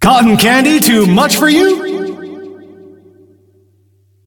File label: Announcer; Ringmaster